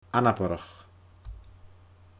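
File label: "anabarrach", Scottish Gaelic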